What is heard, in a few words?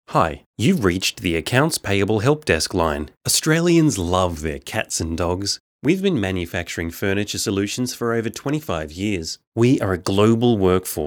Studio Sample